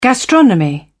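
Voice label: "gastronomy", British English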